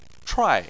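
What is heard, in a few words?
"chuai" fourth tone, Chinese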